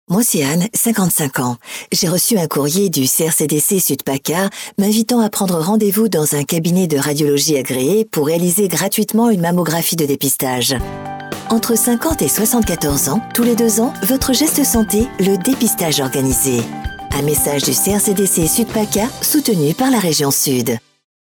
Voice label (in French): Ton posé